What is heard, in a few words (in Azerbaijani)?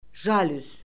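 "jalüz", Azerbaijani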